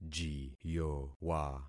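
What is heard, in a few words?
"jiyowa", concatenated syllables; Affixing experiment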